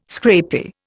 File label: "scrapie", Indian